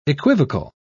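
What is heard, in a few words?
"equivocal", English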